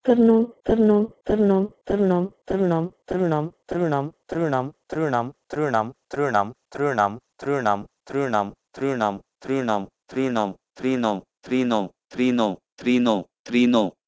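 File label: Proto-Indo-European trno to Bengali trino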